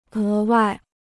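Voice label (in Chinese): "额外" Chinese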